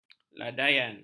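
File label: "ladaian", Malay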